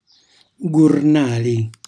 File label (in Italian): "gurnali", calabrese; pozza d'acqua; cucinacalabrese; glossario